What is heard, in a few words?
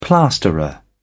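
"plasterer", British English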